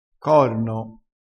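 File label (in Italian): "corno", dialettale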